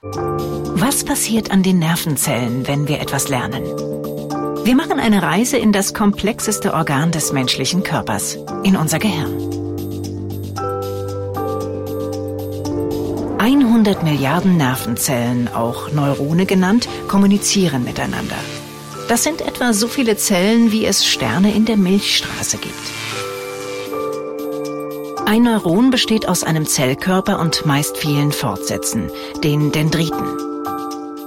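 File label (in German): voice Erklärfilm Synapsen; mpg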